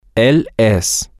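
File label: Spanish, male; he Is Permanent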